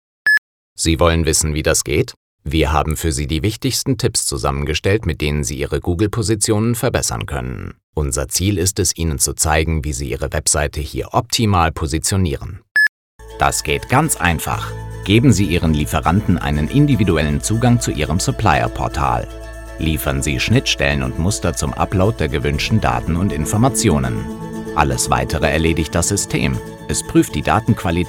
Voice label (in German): sachlich